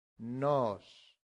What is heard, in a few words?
"nos", Latin; santillana